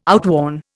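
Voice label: "outworn", Indian English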